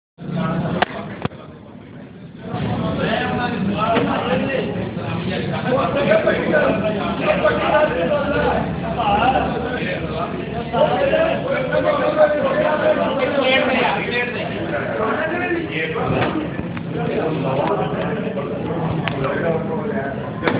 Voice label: Voice message